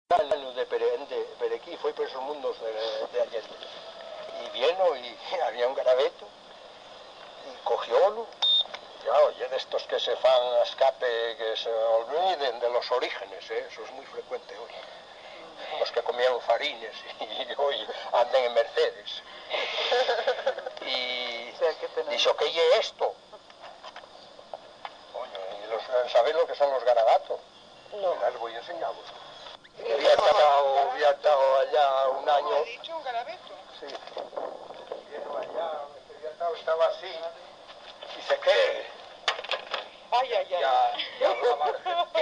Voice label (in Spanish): asturiano central; Busloñe